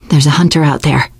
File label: heard hunter, teen girl, voice; source engine; left 4 dead 2; survivor